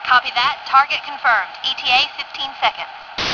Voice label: fem tac fighter; Starship Troopers

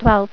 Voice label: "Twelve", Female; TalkingKeys

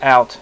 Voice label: out, male, voice; Web Clip Empire 150,000

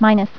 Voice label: "0", Voice Female; TalkingKeys

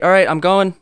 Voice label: plead; Messiah

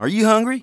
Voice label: conversation, English Voice

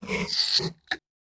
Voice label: VOICEFX TEKNO 140, Techno, Voice

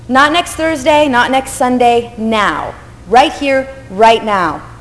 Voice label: Girl Talk; Sound Effects for Multimedia - Volume 2; Sound Companion Demo

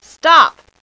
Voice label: "stop", voice

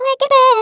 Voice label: "Missed", Speech, Dutch; International; 11khz

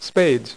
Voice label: "SPADES", Dealer's Speech; PowerPOKER Demo

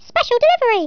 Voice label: "drop", English

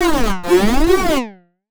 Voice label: VOICEFX TEKNO 140, Techno, Voice

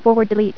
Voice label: "Forward Delete", Voice Female; TalkingKeys

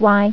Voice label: "Y", Female; TalkingKeys

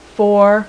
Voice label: 5 female; numbers

